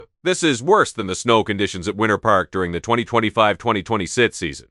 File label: upset, speech; fertig classic games